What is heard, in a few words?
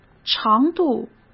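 "长度", Mandarin Chinese; hsk; chinese-flashcards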